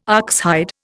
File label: "oxhide", Indian